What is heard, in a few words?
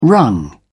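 "wrung", English, British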